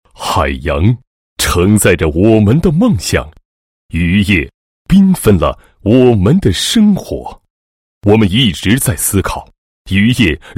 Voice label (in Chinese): 男 新闻 海洋环境监测及预报技术研讨会; 海峡两岸海洋环境监测及预报技术研讨会